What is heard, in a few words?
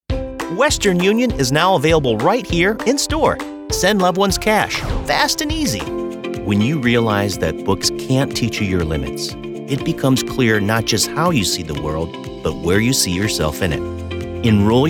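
Commercial, voice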